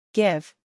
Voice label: "give", English